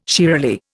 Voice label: "shiralee", Indian